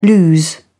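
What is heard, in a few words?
"lose", British English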